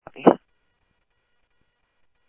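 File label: Mesa police department, scanner audio trunk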